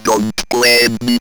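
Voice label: ROBVOX, robot voice; E-Lab; X Static Goldmine 1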